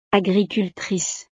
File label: "agricultrice", francés; profesiones